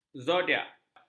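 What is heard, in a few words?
"zodiak", Malay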